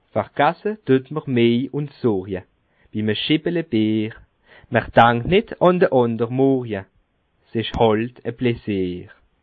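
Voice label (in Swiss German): "sorje", Bas Rhin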